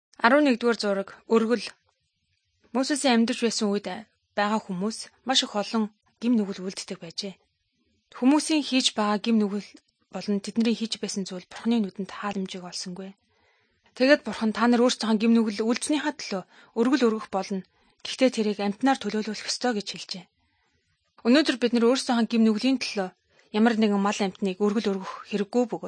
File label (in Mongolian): good news mongolian